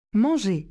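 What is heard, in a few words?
"manger", French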